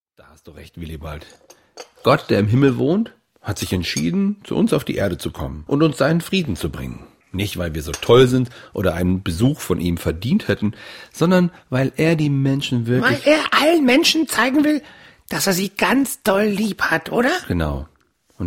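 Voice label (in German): Hörspiel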